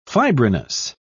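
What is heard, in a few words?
"fibrinous", English, British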